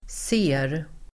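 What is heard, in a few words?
"ser", Swedish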